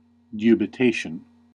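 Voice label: "dubitation", US English; pronunciation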